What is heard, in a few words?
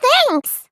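"thanks", English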